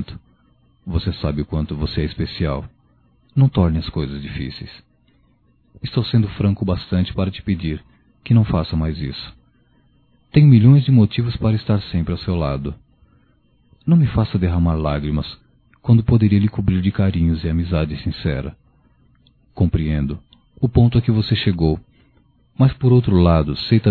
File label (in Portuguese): Atitude Indesejada Masculino, Só Voz; Telemensagens; Telemensagens 2017